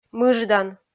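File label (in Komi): "мыждан", Komi, female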